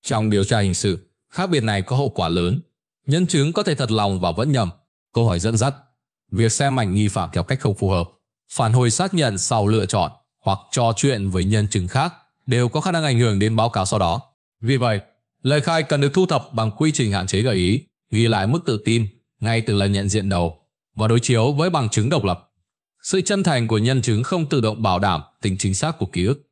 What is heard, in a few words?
narration